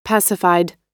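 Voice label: "pacified", American English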